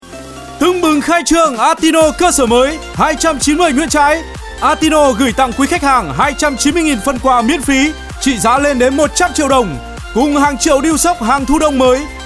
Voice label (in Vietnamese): CUA HANG QUAN AO GIONG NAM MIEN BAC; ATINO; Hệ thống thời trang Atino